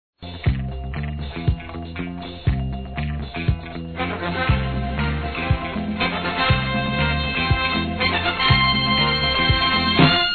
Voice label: Filha Criança Feminino, Telemensagens Aniversário; Aniversários; Aniv Familiar

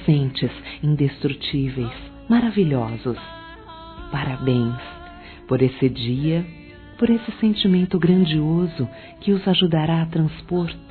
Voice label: Bodas de Papel - Femin, Telemensagens; Bodas e Casamento

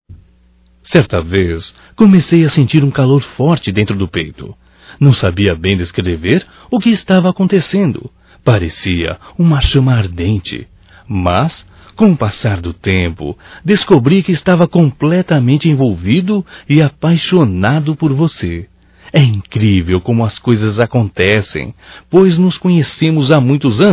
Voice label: Romântico Masculino

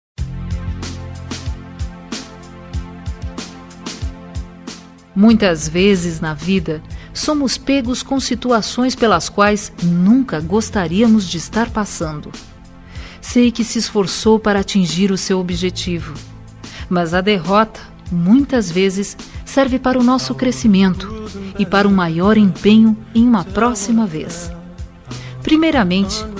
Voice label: VESTIBULAR ESCOLA QUEM FOI REPROVADO FEM; Telemensagens; Formaturas; Telemensagens 2017

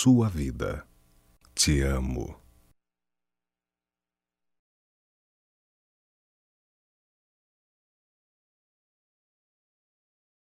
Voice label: Você Masculino, Só Voz; Telemensagens; MOMENTOS DE AMOR

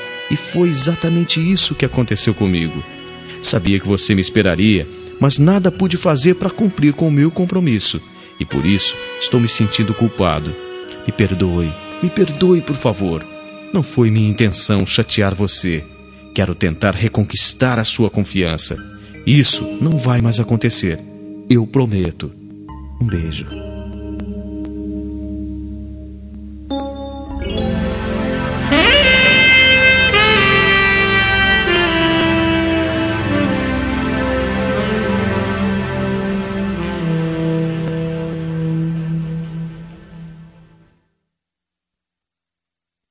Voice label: DESCULPA POR NAO TER IDO, MASC; Telemensagens 2017

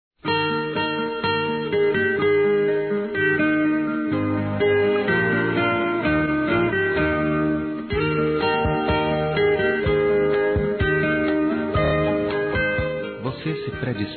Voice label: AGRADEC. AJUDA, masc; Telemensagens; Convites e Agradecimentos; Telemensagens 2017

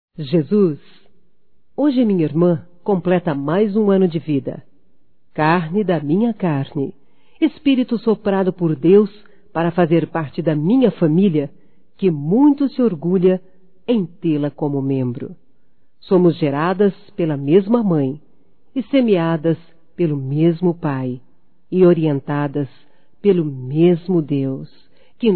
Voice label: Aniversário Feminino, Telemensagens; Telemensagens 2017; Aniversarios

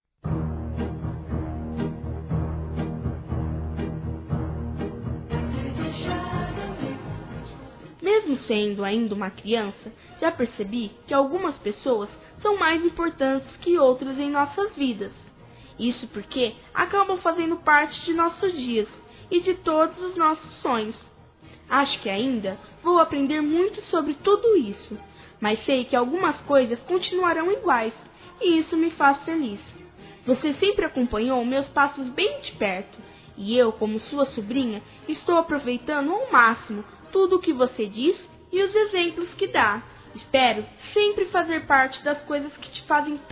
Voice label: TIO A F INFANTIL, Telemensagens, Aniversários, Fem; Aniv Familiar

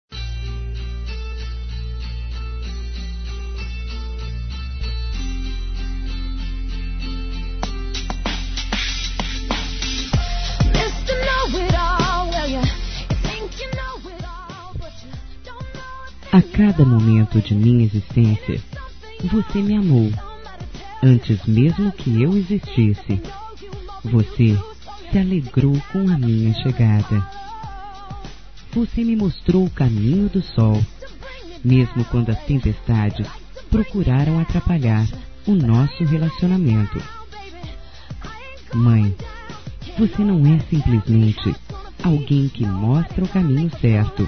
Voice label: Dia das Mães Neutro Feminino, Telemensagens; Telemensagens 2017

